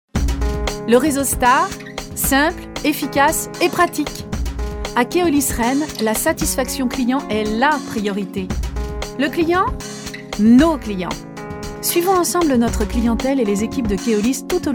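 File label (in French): Instit, voix off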